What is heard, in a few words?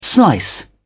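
"slice", British English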